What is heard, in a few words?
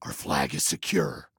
Flag Secure, Male